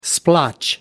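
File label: "splotch", British English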